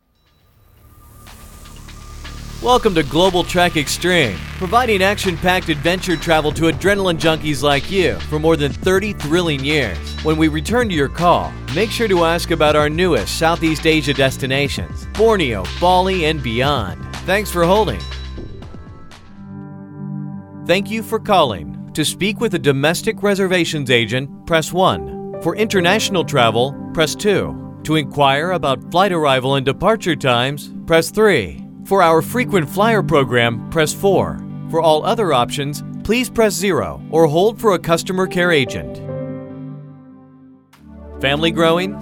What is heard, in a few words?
MOH IVR